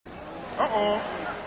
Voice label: uh oh

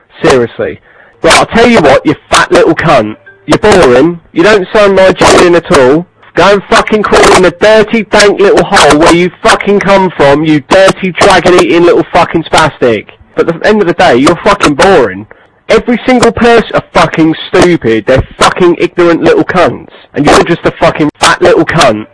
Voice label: angriest british guy

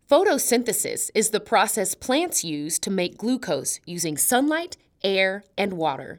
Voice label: 911 call

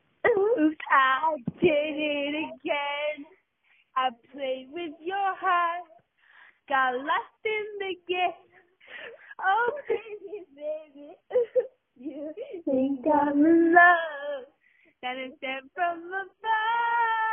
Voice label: voicemail

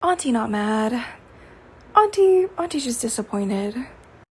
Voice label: disappointed

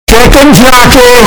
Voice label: chicken jockey bass boosted

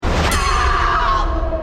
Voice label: heeeeeelp

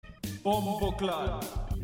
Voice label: bomboclat reverb

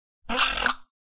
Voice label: mad sound effect; hello neighbor 2

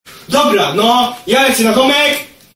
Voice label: angry polish kid

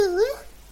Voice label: uwuuuuu

